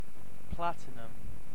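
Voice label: "platinum", British English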